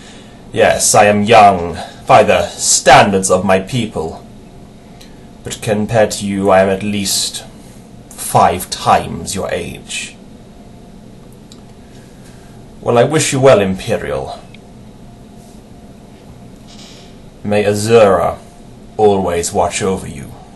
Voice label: dunmer audition, dark elf voice auditions; voice acting